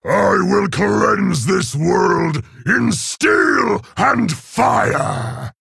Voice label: Male Orc Idle; Garrosh Hellscream